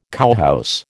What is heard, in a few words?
"cowhouse", American